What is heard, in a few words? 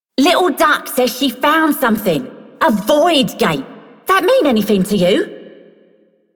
Female Rail Agent, Female One, en; Dialog; Warframe; Solaris Venus